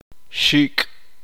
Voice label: ala, uspanteko

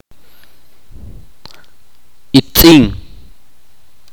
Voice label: hermana menor, itza

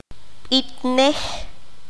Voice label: "Cantarlo", qanjobal

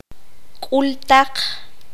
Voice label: "aldea", Q'anjob'al

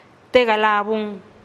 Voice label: "te galabun" contemos, teotitlan

